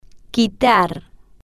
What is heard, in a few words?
"quitar", spanish